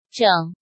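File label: "Zhěng", Chinese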